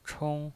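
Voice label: "chong", Mandarin Chinese pinyin voice, first tone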